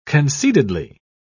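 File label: "concededly", British English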